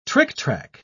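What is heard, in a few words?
"tricktrack", British English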